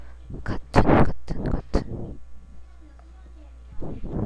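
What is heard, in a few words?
"cartoon", spoken word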